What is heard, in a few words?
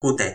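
"kute", Toki Pona; lipu linku; kalama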